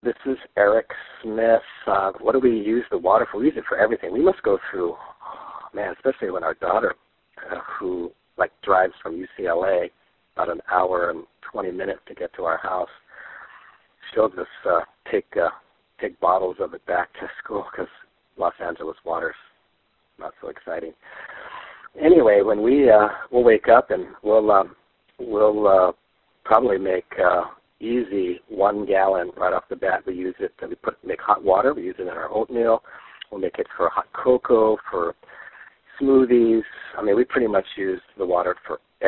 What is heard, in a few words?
voicemail